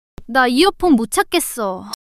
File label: fluster; monologue